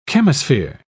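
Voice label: "chemosphere", British English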